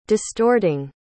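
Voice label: "distorting", English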